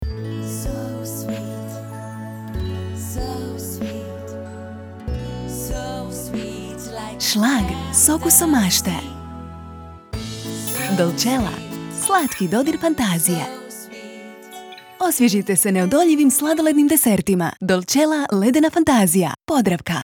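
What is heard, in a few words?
croatian female commercial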